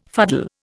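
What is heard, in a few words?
"fuddle", Indian English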